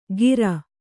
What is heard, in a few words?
"ಕೀರ್", Kannada